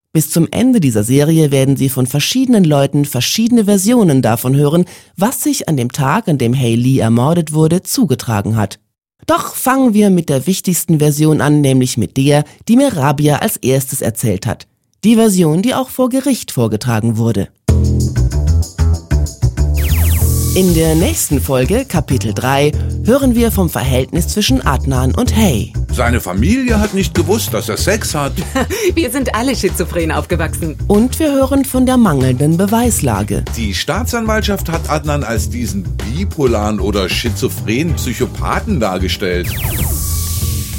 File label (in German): Podcast